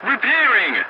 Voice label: voice message repairing; dialogs; War Thunder